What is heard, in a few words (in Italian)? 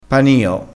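"panio", dialetto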